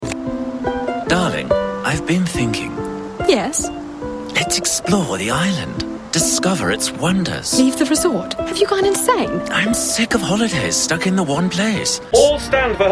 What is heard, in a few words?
VOICEOVER CHARACTER VOICES